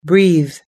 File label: "breathe", us english